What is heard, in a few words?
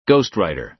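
"ghost writer", American English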